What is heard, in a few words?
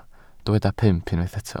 "pump", Welsh